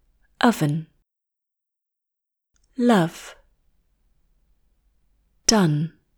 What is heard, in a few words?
French; Most Common English Pronunciation Errors made by French Speakers